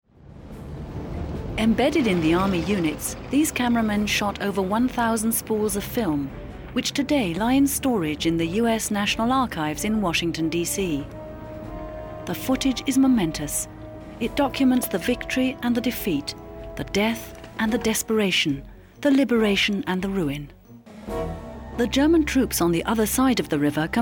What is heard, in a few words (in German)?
uk englisch